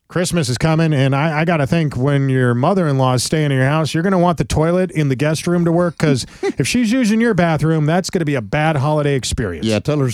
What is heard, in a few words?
RADIO AD; nicks plumbing radio ads